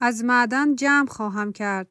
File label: female mine, persian